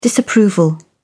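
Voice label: "disapproval", British English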